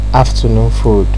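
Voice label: "afternoon food", Nigerian Pidgin, male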